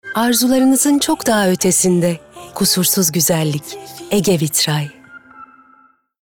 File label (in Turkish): Romantik Duygusal